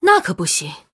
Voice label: Female Murloc Error; zh